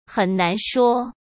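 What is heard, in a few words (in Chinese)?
"很难说", Mandarin Chinese; hsk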